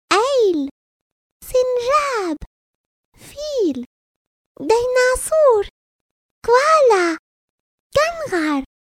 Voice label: female arabic voice over character